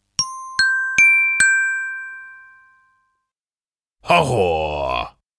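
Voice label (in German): "Horror", German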